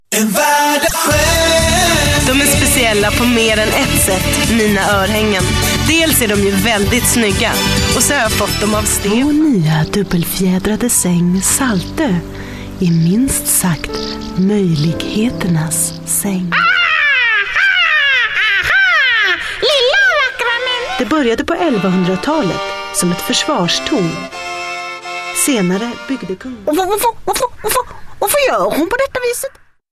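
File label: Swedish; Scandinavian; Male; 30s-40s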